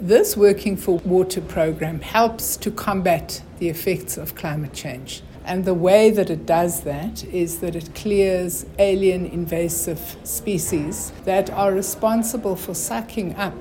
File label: ENG; Creecy; Water